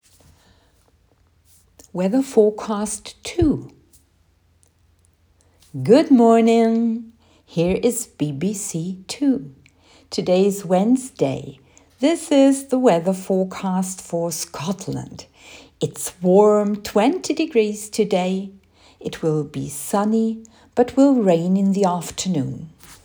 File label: weather forecast